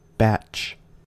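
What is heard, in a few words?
"batch", US English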